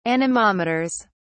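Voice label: "anemometers", British English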